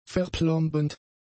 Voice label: "verplombend", german; adjectives

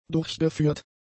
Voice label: "durchgeführt", German; adjectives

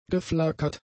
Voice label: "geflackert", German; adjectives

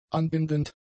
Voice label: "anbindend", German; adjectives

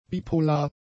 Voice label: "bipolar", German; adjectives

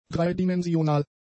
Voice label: "dreidimensional", German; adjectives

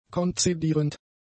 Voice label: "konzedierend", German; adjectives

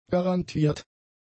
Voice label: "garantiert", German; adjectives

